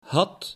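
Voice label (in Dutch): "had", Dutch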